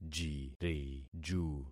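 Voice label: "jiriju", concatenated syllables; Affixing experiment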